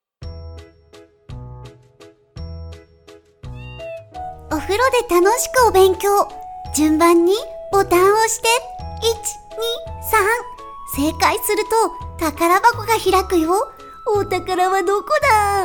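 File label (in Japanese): ボイスサンプル（玩具CM風）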